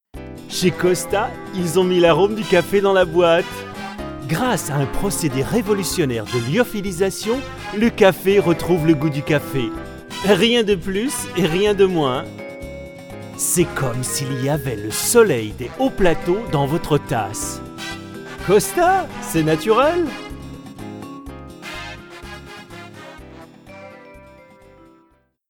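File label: Commercial, male voice over, French; Werbung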